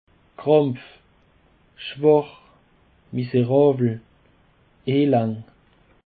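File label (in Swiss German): "krampf", Alsatian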